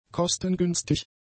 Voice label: "kostengünstig", German; adjectives

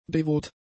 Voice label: "devot", german; adjectives

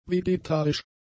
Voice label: "vegetarisch", German; adjectives

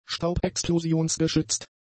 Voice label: "staubexplosionsgeschützt", German; adjectives

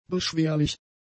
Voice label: "beschwerlich", German; adjectives

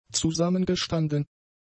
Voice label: "zusammengestanden", German; adjectives

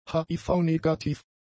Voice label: "HIV-negativ", German; adjectives

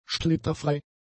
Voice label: "splitterfrei", German; adjectives

